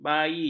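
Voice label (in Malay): "bayi", Malay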